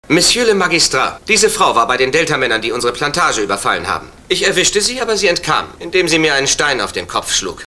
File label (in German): Hörprobe des deutschen Synchronschauspielers; Lex Barker